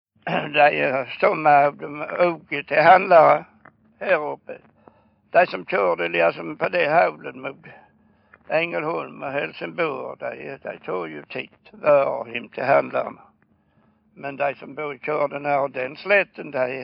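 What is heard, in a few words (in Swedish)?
Örkelljunga Skåne, dialekter; Folkminnen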